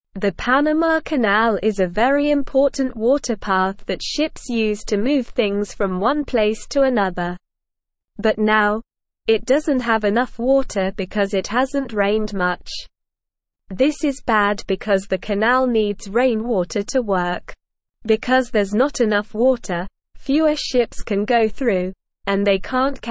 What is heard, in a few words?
English SLOW Reading; English Newsroom; Panama Canal Needs More Water for Ships